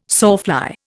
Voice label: "sawfly", Indian